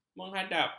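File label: "menghadap", Malay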